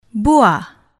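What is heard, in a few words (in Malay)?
"buah", Malay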